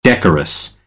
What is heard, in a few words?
"decorous", American; English